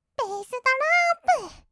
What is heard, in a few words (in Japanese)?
べーすどろっぷ, kawaii voice; 音ゲー